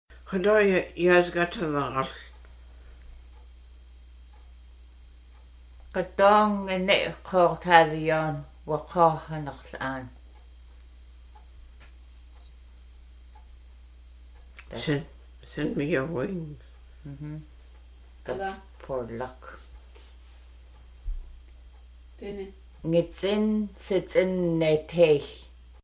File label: sayings, deg xinag, alaska native languages